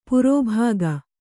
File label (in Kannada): "ಪ್ರಭಾಗ", Kannada